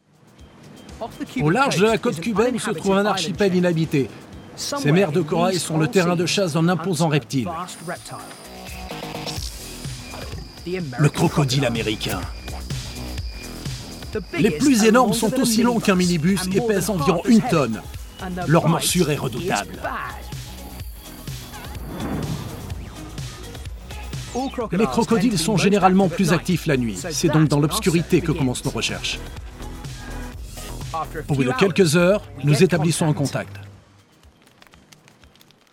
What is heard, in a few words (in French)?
Medley Voice Over